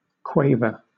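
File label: "quaver", British English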